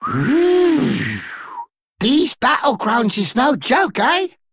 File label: Male Dredger General